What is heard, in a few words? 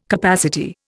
"capacity", Indian